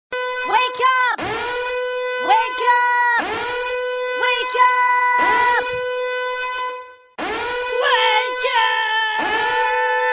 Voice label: wake up ringtone voice